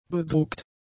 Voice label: "bedruckt", German; adjectives